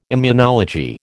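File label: "immunology", American English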